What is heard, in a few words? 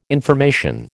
"information", American